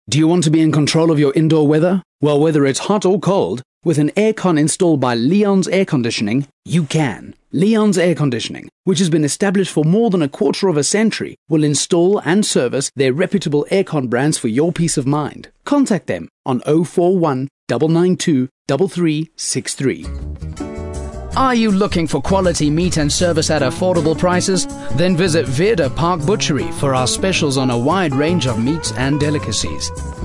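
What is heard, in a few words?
English South African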